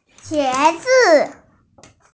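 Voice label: "qie2 zi5", Mandarin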